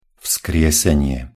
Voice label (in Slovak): pronunciation sk vzkriesenie